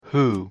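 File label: pronunciation "who", English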